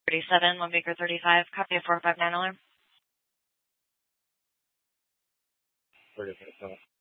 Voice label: Mesa PD Central Dispatch, Scanner

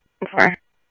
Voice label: Police, scanner audio; ThompsonPeakHigh

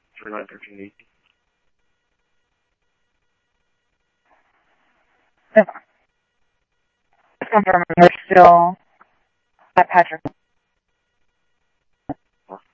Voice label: Scottsdale Police, scanner audio

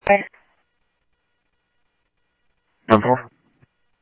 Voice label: Police, scanner audio trunk; H-Scottsdale; SCTSDLE PD - PD2 ScottsdalePolice

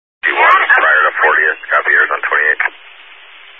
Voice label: Highway Patrol, Phoenix Metro East Area, scanner

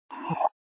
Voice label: Fire Dispatch; C- Chandler

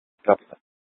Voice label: Police Dispatch

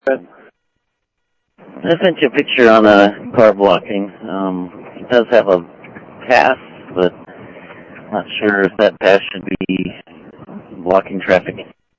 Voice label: scanner audio trunk; ASU Park 1

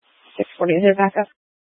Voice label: Police Dispatch; Phoenix Police; Simulcast C- Chandler

